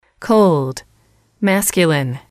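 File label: "cold", English, female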